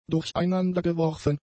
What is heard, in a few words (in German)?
"durcheinandergeworfen", German; adjectives